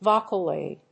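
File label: "VOCALOID", English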